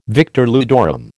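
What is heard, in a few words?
"victor ludorum", American English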